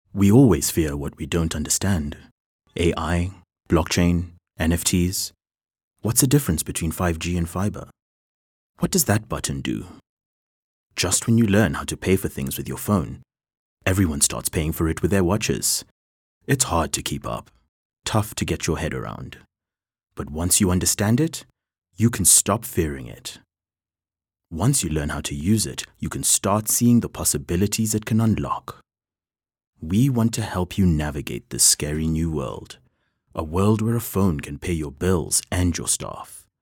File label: soft reflective; Safaricom